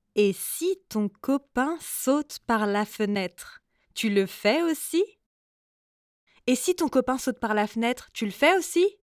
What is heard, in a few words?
french mom phrases